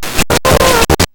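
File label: radio vox left; AKAI; SHORTFX KIT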